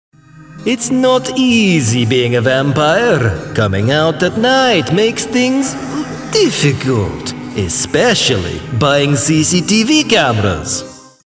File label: Commercial Character Vampire